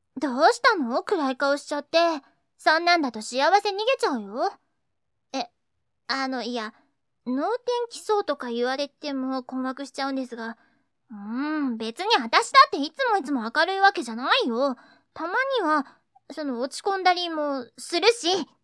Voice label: girl; いろんな励まし方。; 他称能天気娘